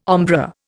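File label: "ombré", Indian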